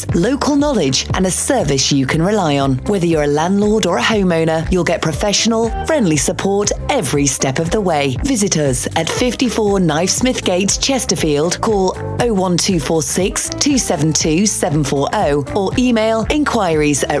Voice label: Estate Agents Commercial